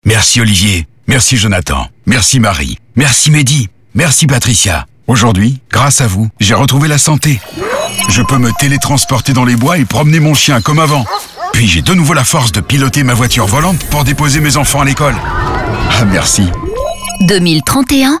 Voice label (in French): Spot radio essais cliniques